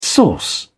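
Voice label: "source", British English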